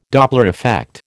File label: "Doppler effect", American